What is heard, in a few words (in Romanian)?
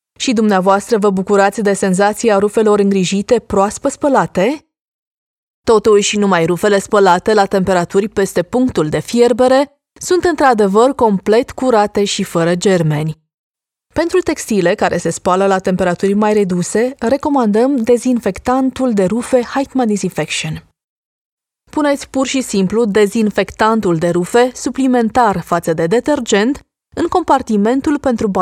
Romanian Online Video